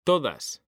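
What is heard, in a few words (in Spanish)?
"todas", Spanish; mekanta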